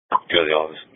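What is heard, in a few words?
Police Patrol (Dispatch)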